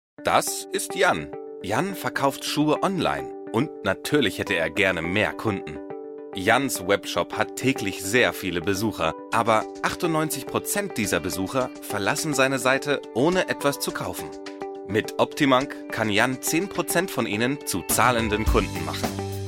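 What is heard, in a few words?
German, male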